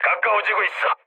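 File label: Korean near waypoint mood low; War Thunder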